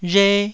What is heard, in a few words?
"rhe1", taiwanese